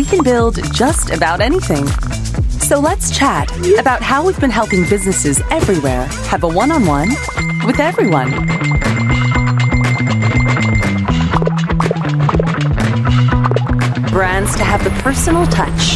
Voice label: Mid-Atlantic English Conversation; Meta

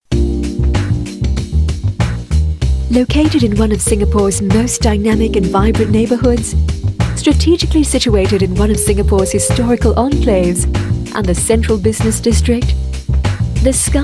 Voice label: Asian English Hotel

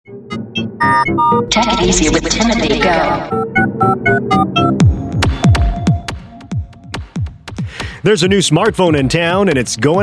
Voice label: Asian English; TEI Oppo R11s

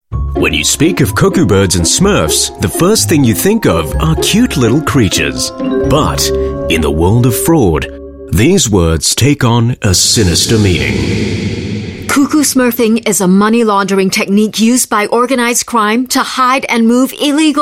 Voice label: Asian English Seminar; Malkara Consulting

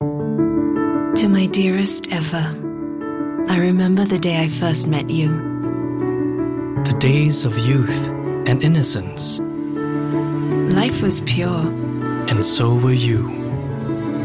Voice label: Asian English, Organic Facial Cleanser; EVERSOFT

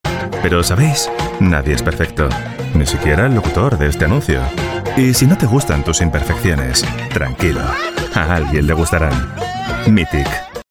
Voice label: European Spanish Commercial

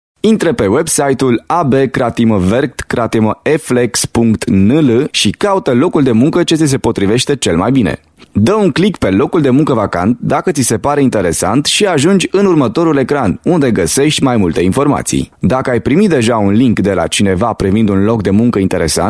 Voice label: Romanian Promo

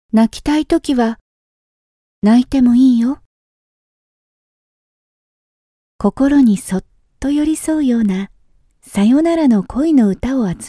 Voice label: Japanese Commercial

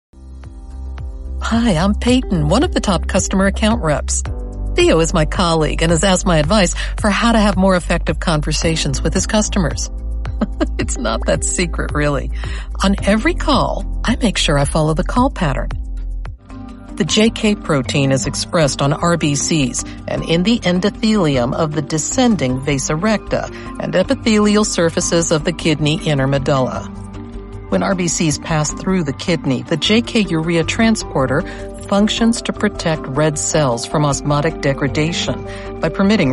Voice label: US English eLearning

